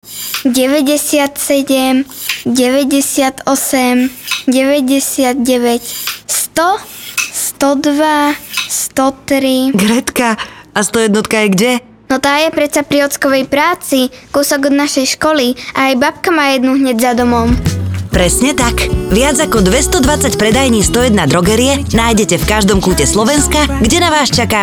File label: radio; drogerie